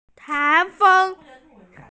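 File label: "tai2feng1", Mandarin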